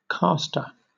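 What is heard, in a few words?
"caster", British English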